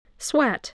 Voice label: "sweat", English